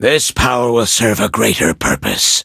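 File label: level up, English; cookie run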